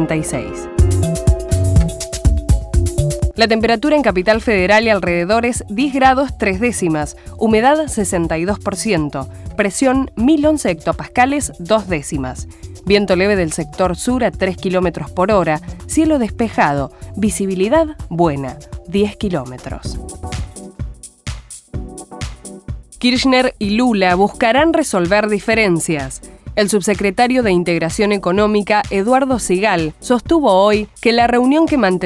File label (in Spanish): Locutora